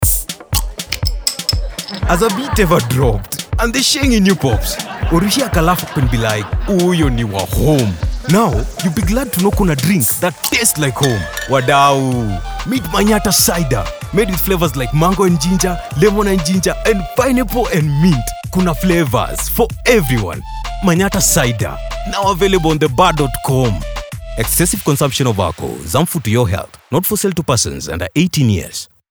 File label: Swanglish, cider Spotify ad; MANYATTA